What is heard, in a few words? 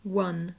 "won", english